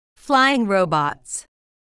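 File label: "flying robots", English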